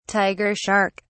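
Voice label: "tiger shark", British English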